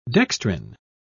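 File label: "dextrin", British English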